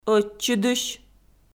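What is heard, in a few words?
"ӧтчыдысь", Komi-Zyrian, female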